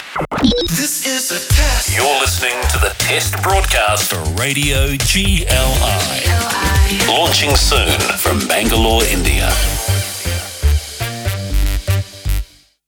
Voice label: Imaging AI, New Zealand; Galaxy FM 107fm